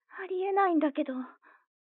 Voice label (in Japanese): 彼女の電話台詞, ASMR